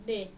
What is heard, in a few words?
"de", Dinka